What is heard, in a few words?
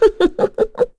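Vox Happy, korean; FreyB; kingsraid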